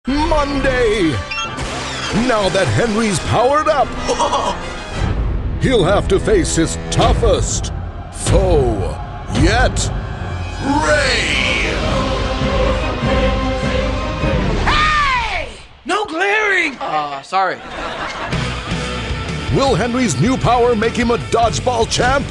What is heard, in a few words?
Asian English dodging danger trailer